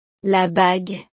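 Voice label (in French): "la bague", French; ropa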